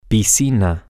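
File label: Spanish, swimming pool, male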